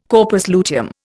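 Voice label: "corpus luteum", Indian English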